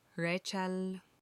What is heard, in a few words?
jam in turkish